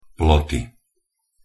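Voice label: "ploty", Slovak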